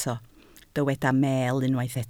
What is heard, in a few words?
"meel", Welsh